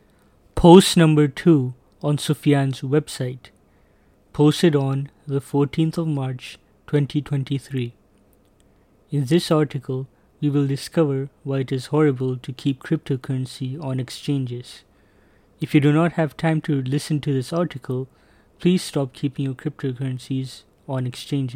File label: voiceover